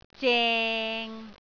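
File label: "zeng6", Cantonese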